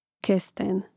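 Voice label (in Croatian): "kesten", Hrvatski; Mrežnik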